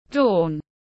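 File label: "Dawn", English; Bình minh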